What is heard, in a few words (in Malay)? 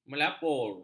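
"melapur", Malay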